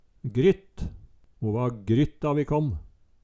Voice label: "grytt", Numedalsmål

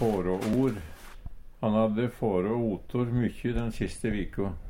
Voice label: "fårå or", Numedalsmål; Han hadde fårå otor mykkjy den siste viko.

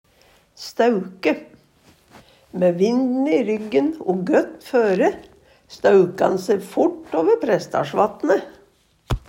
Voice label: stauke, Numedalsmål; Eksempel på bruk Mæ vinden i ryggen o gøtt føre, stauka'n se fort åver PrestdaLsvatne'.

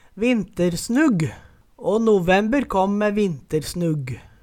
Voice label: "vintersnugg", Numedalsmål; Å november kåmm me vintersnugg

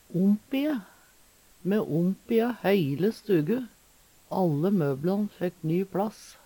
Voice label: "ompie", Numedalsmål; Verb; Veggli

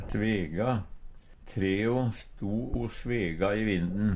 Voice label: svega, Numedalsmål; Verb

